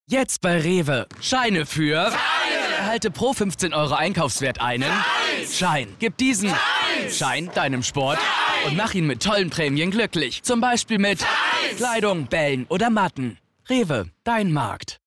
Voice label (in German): Werbung Funk; REWE